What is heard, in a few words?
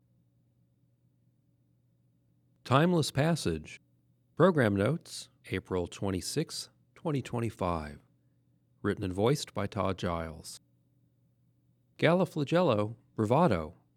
Program Notes Voiceover